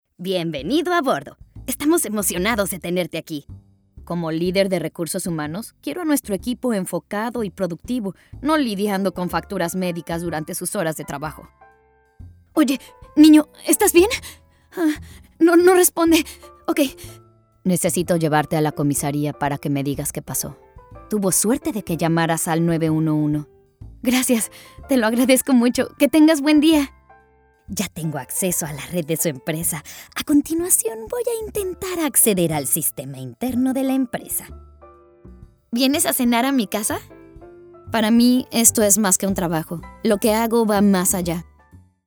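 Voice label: Spanish Voice Over, Characters for Training; eLearning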